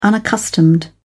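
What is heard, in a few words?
"unaccustomed", British English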